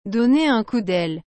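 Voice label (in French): "donner un coup daile", French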